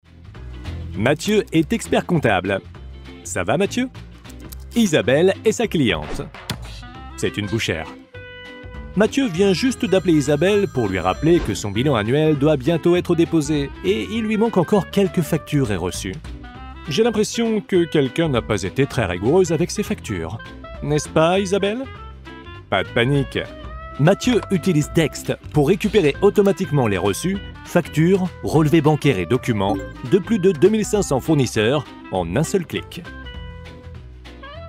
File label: explainer; dext; French voice-over